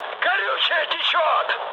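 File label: tank damaged, mood high, Russian; War Thunder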